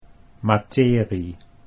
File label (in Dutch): "materie", Dutch